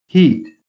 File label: "HEAT", american